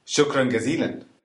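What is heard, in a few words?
thanks, Egyptian Arabic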